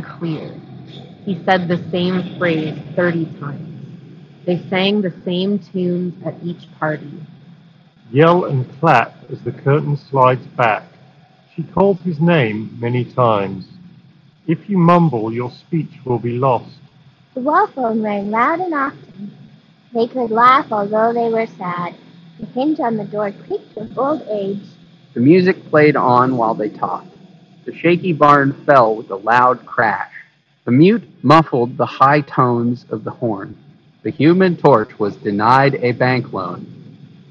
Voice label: ATH TWX9 Street microphone sample; Audio technica